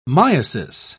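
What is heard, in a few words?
"myiasis", British English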